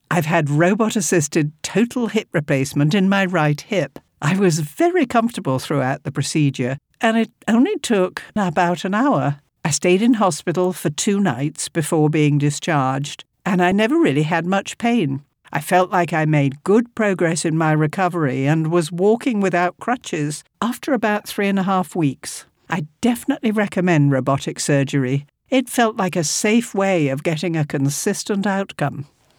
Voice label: Medical Commercial Procedure, British RP Warm Engaging